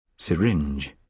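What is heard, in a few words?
"syringe", British English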